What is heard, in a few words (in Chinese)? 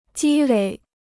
"积累", Chinese